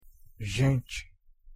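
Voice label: "gente", Portuguese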